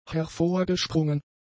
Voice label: "hervorgesprungen", German; adjectives